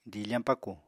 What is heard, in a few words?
"diifañ pákù", apatani